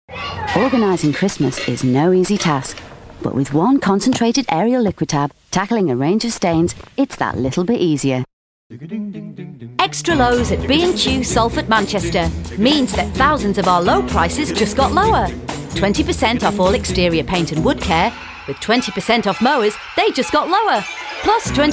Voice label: advert reel vo